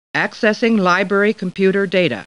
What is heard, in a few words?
Star Trek Computer Voice